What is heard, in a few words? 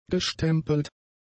"gestempelt", German; adjectives